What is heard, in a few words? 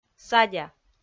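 "садя", Komi, female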